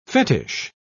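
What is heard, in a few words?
"fetich", English, British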